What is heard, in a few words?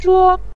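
"zhuo", Mandarin Chinese syllable, neutral tone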